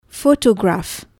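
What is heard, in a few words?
"photograph", English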